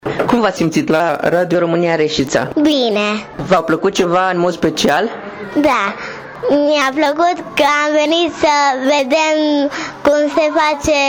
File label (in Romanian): vox copii; la radio